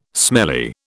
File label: "smelly", British